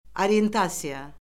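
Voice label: "oriyentasiya", Azerbaijani; Orfoqrafiya Lüğəti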